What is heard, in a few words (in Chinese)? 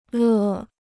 "乐", Chinese